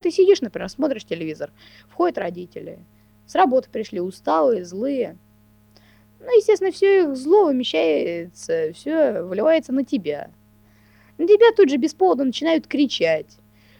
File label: Speech No Noise